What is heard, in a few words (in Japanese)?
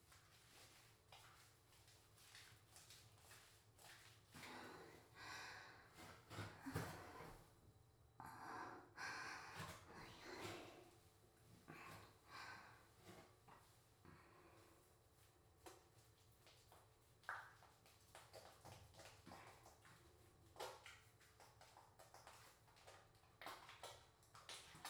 お風呂, ASMR; お風呂で手こき